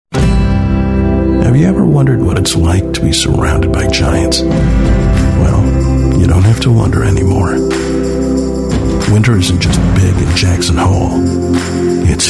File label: US English Commercials